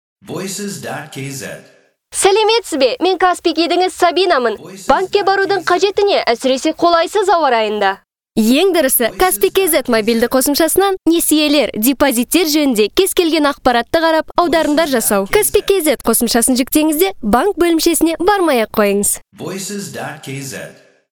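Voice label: Kazakh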